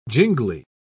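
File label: "jingly", British English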